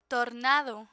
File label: tornado, learn spanish; emergency natural disaster